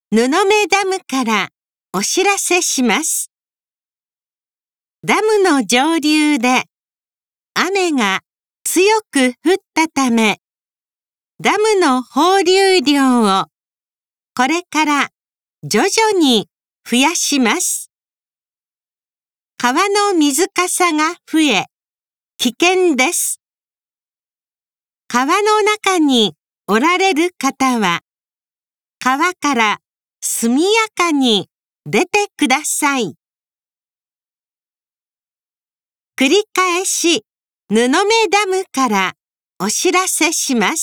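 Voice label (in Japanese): 雨が降り始めてゲート放流を開始する時の警報, 音声放送; 布目ダム管理所版; kizugawa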